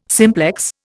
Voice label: "simplex", Indian

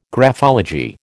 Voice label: "graphology", American

